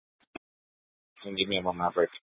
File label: Mesa PD Fiesta Dispatch; Mesa Simulcast